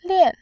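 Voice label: "lian4", Mandarin Chinese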